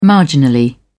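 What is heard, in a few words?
"marginally", English, British English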